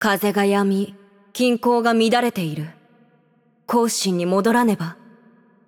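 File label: voice over, Japanese; Game Outro; bloom minigame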